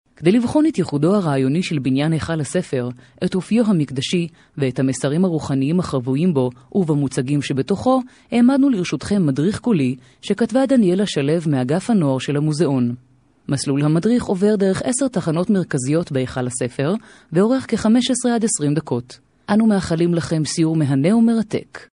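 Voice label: Hebrew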